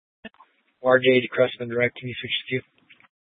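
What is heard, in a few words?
Police 1 Dispatch, Scanner audio recording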